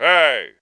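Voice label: "hey", speech; tenpin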